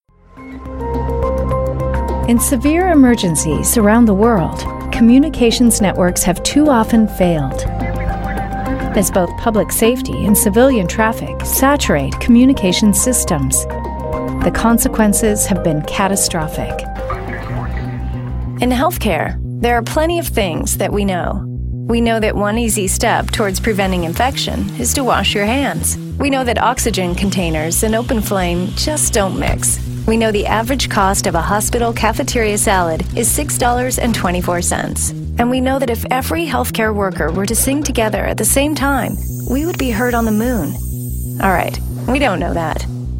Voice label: Narration